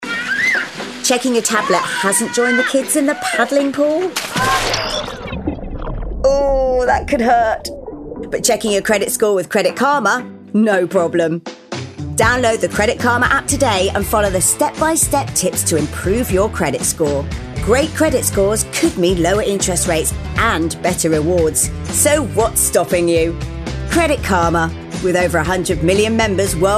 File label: Advert HELPFUL FRIENDLY; CREDIT KARMA